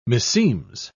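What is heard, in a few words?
"meseems", British English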